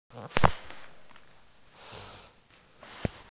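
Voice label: "wa zi" Chinese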